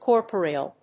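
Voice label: "corporeal", English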